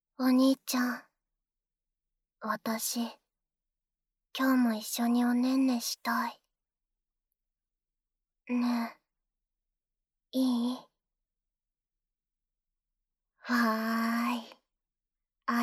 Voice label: ぎゅっと抱き合いながら耳舐めとパンツ越し素股, ASMR

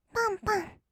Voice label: ぱんぱん, kawaii voice; ASMR; 色々なワンショット

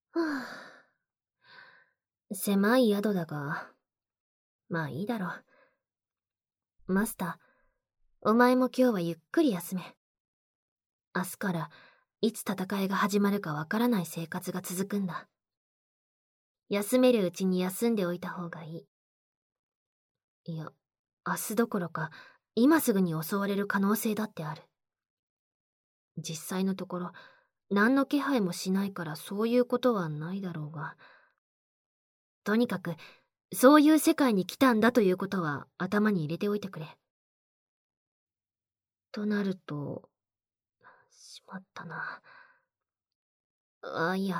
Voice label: 漏れ声我慢！ウィスパーボイスで初エッチ！, ASMR; 狭い宿屋で

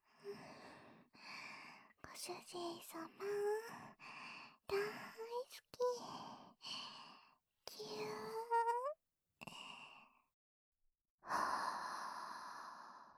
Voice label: 耳元好き好きしゅきしゅき連呼囁き＆特濃べろちゅー手コキ

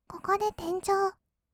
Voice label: ここで転調, kawaii voice; ASMR; 色々なワンショット

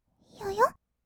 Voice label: "よよっ？", kawaii voice; ASMR; 色々なワンショット

